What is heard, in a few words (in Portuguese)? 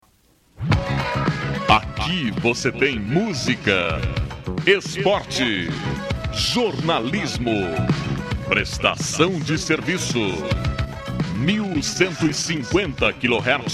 Vinheta; Radio Tupi; 1150 kHz